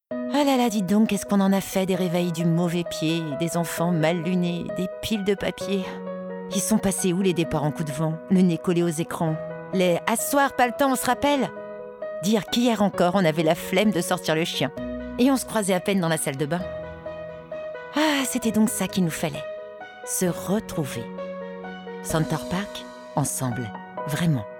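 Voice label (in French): Spot TV evocation, voix off féminine; Center Parc; Complice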